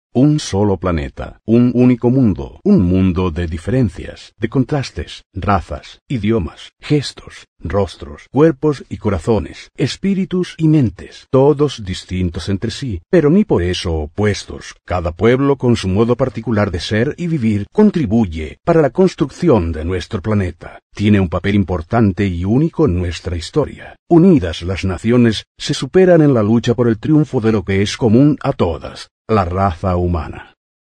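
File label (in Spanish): Locución Española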